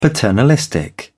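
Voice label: "paternalistic", British English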